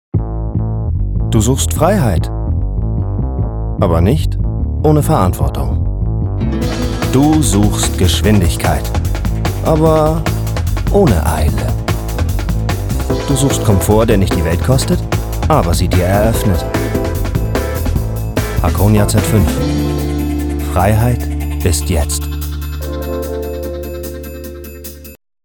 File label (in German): Werbung